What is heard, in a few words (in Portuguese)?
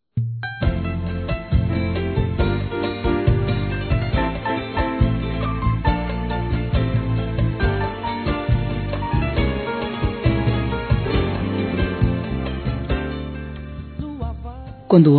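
ROMANTICA P CASAL, Feminino; Telemensagens; Românticas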